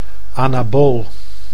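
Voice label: "anabool", Dutch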